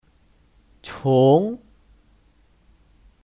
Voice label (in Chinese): "从", Cóng